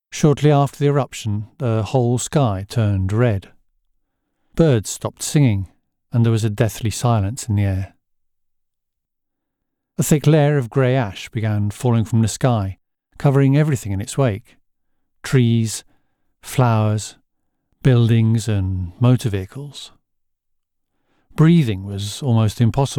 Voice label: DOCUMENTARY, voice-over; Genuine British Received pronunciation, cockney and Scottish

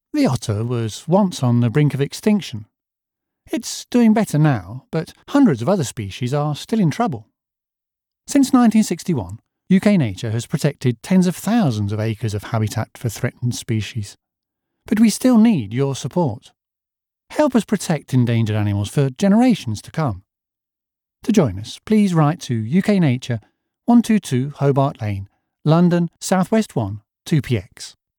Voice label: Conservation Appeal, voice-over; Genuine British Received pronunciation, cockney and Scottish

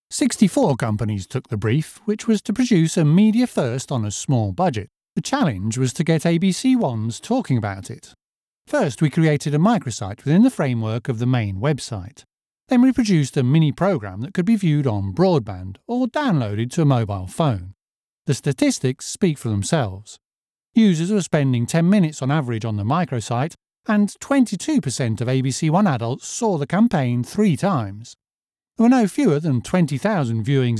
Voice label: CORPORATE, voice-over; Genuine British Received pronunciation, cockney and Scottish

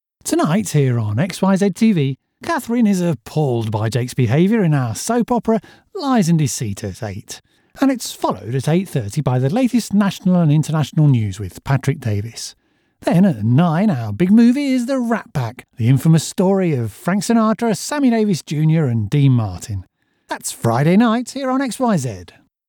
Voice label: Menu, voice-over; Genuine British Received pronunciation, cockney and Scottish

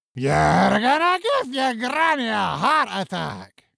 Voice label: Scottish dwarf, voice-over; Genuine British Received pronunciation, cockney and Scottish

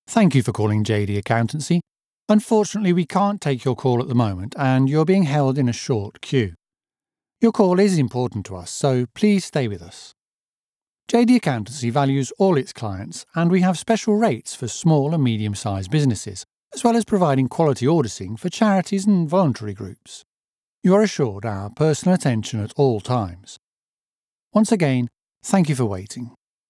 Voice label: ON HOLD MESSAGE, voice-over; Genuine British Received pronunciation, cockney and Scottish

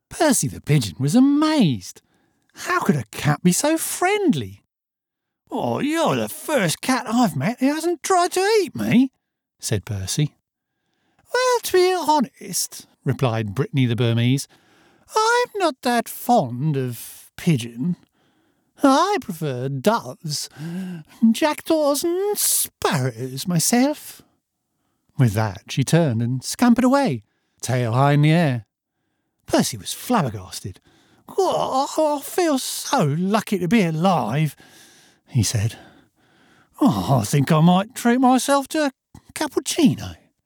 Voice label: CHILDRENS STORY, voice-over; Genuine British Received pronunciation, cockney and Scottish

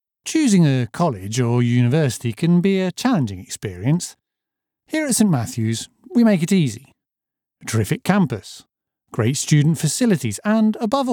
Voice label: College promo; Genuine British Received pronunciation, cockney and Scottish

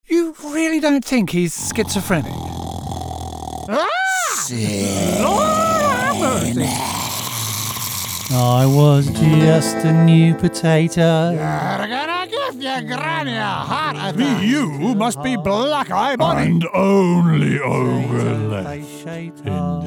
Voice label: New character voices, voice-over

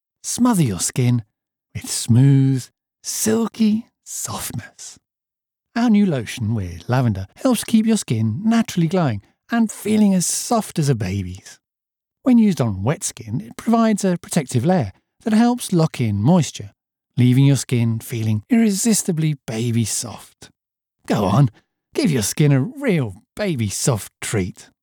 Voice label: Baby Lotion, voice-over; Genuine British Received pronunciation, cockney and Scottish

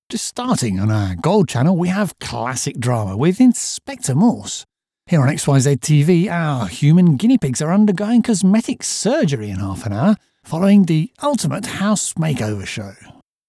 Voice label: Cross Channel promotion, voice-over; Genuine British Received pronunciation, cockney and Scottish

